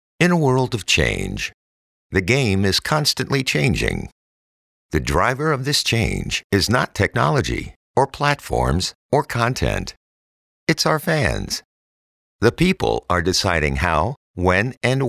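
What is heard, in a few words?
PROMO, voice over; Warner TV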